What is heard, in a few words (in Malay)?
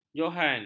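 "johan", Malay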